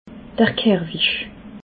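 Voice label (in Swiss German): "kehrwesch", Alsatian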